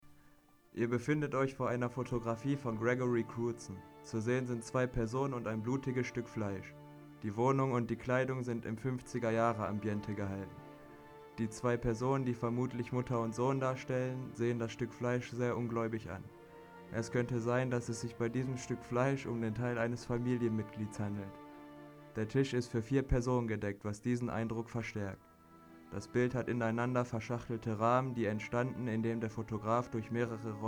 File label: audioguide; sunday roast